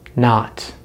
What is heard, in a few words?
"not", Vowels in Am; American English; easypronunciation